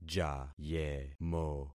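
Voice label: "jayemo", concatenated syllables; Affixing experiment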